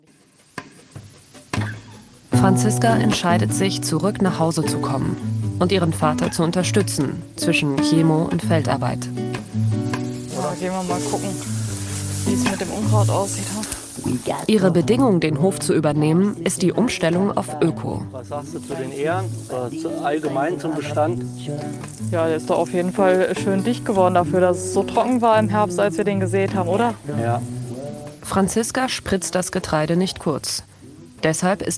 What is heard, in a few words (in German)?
Doku